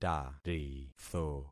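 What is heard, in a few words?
"taritho", concatenated syllables; Affixing experiment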